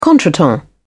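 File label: "contretemps", British English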